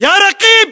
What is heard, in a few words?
rank sergeant, localized english battlechatter